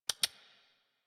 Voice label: Horse Start Adult; Warframe; Duviri

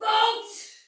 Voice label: Soldier Female, Battle Barks; Sinecure; en

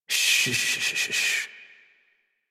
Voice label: Horse Calm Adult; Warframe; Duviri

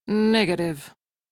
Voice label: Crew Reject, Female; Dialog; en; Warframe; Railjack Crew; Steel Meridian

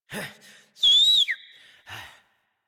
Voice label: Horse Stop Adult; Warframe; Duviri

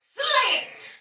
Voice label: See Ally Hit, Soldier Female, Battle; Dialog; Barks; Sinecure

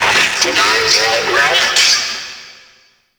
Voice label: Friendly Hack Vocal; Warframe; Entrati; Necramech Hack

